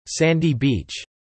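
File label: "sandy beach" spoken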